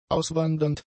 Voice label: "auswandernd", German; adjectives